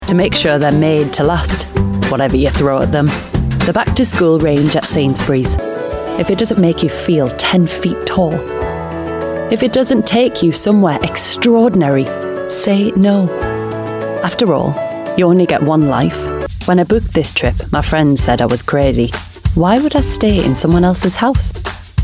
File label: Commercial Voice